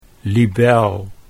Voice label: "libel", Dutch

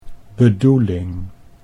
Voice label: "bedoeling", Dutch

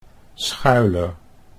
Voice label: "Schuyler" Dutch

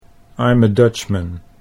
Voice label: I'm a Dutchman, Dutch

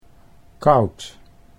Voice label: "koud", Dutch

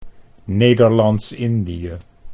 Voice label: "Nederlands Indie", Dutch

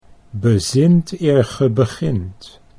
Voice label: "bezint eer ge begint", Dutch

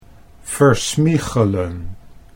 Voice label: "versmiegelen", Dutch

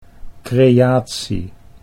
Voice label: "creatie", Dutch

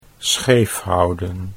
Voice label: "scheefhouden", Dutch

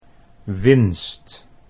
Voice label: "winst", Dutch

